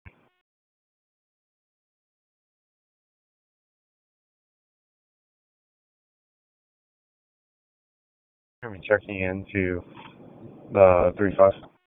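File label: Police Northeast District Dispatch, p25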